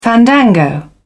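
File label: "fandango", British English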